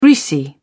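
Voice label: "greasy", British English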